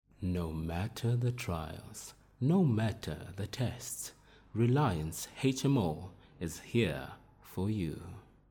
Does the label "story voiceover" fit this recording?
No